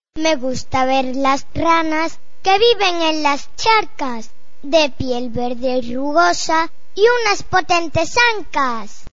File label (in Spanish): poesia ranas; fantasmin; poesias; Las ranas de las charcas.